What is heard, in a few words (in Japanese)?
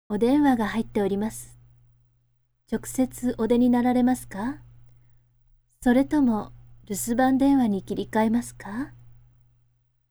tel woman